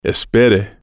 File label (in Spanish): "espere", spanish